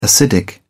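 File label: "acidic", British English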